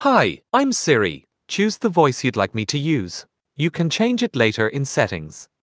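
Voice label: English, Ireland; iOS Sounds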